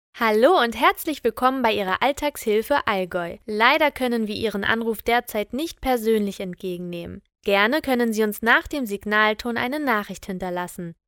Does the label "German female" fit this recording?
Yes